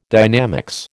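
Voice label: "dynamics", American English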